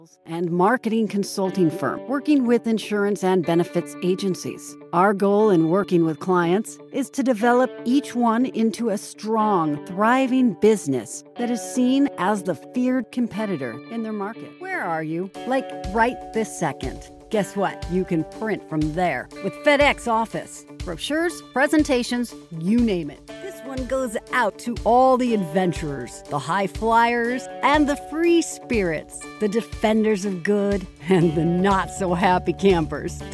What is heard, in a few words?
newnar mono